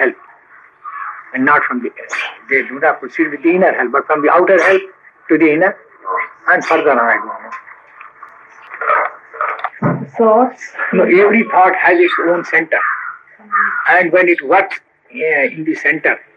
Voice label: Meditation; Babuji